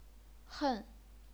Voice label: "hen4", chinese pinyin

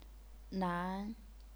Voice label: "nan2", chinese pinyin

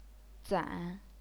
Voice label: "zan3", chinese pinyin

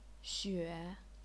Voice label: "xue3", chinese pinyin

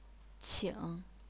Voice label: "qing3", chinese pinyin

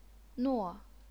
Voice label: "nuo4", chinese pinyin

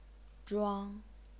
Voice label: "zhuang1", chinese pinyin

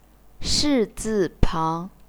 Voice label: "shi4 zi4 pang2", chinese pinyin

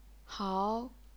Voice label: "hao2", chinese pinyin

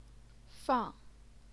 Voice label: "fang4", Chinese pinyin

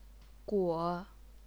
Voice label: "guo3", chinese pinyin

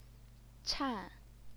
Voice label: "chan4", Chinese pinyin

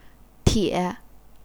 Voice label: "tie3", chinese pinyin

